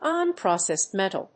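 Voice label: "unprocessed metal", English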